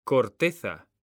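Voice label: "CORTEZA", Spanish; mekanta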